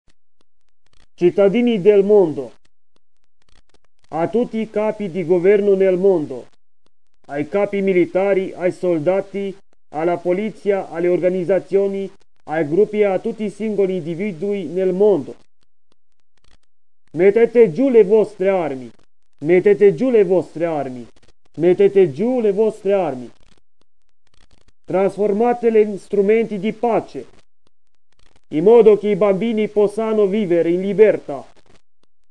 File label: global disarm call Italian